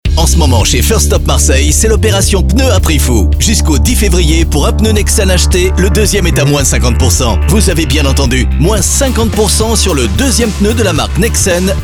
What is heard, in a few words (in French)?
Spot publicitaire